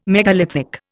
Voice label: "megalithic", Indian